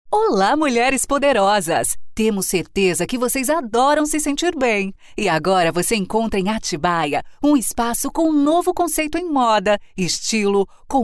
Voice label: portuguese brazilian automotive

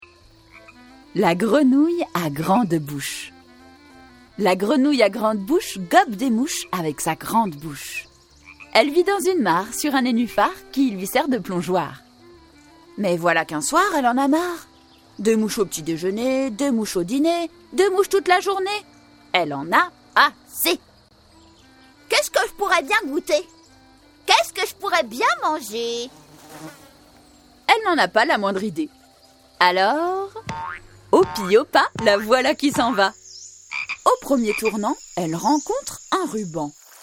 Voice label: french audiobooks

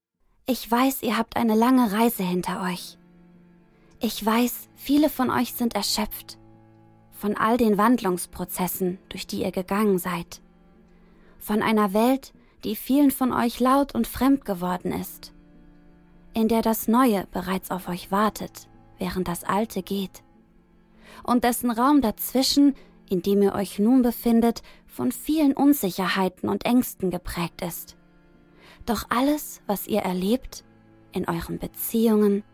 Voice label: german audiobooks

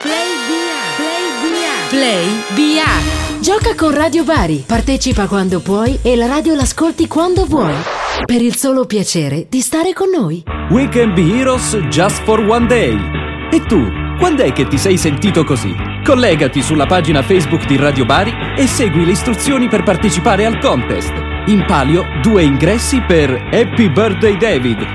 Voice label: italian commercial

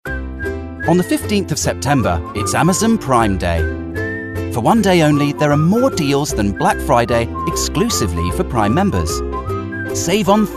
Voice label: english british commercial

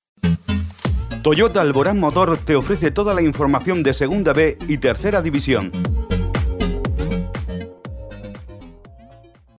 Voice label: spanish automotive

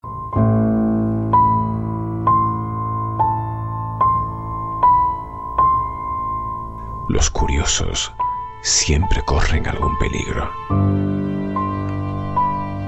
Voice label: spanish radio imaging

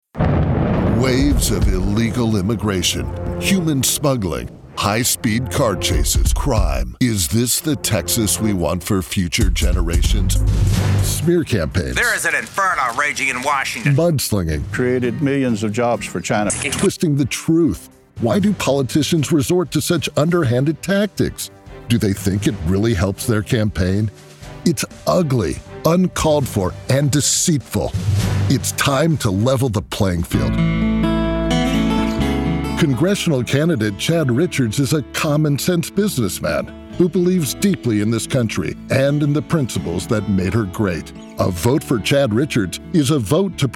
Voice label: english american political ads; Inglés (Estados Unidos)

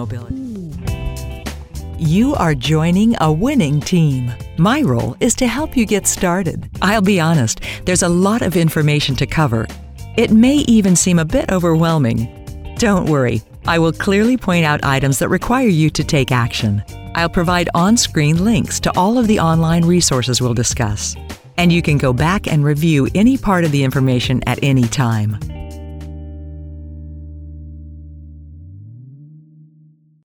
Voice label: english american documentaries

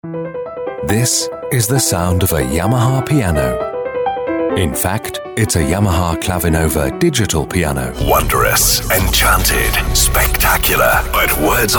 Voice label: english british commercial; Anglais (britannique); Royaume-Uni

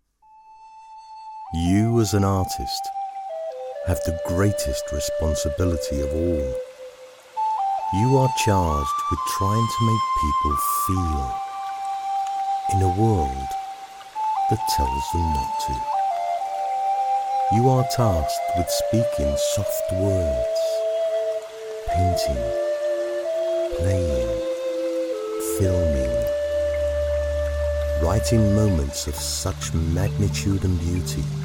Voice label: english british narration

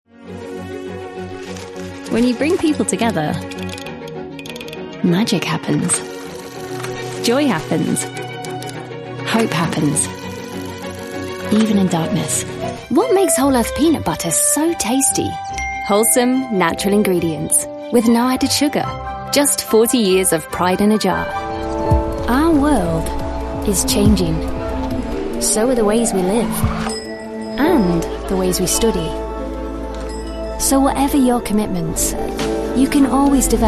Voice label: english british commercial

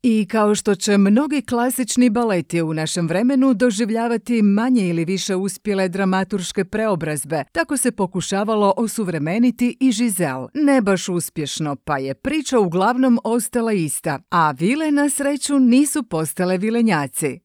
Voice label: croatian documentaries

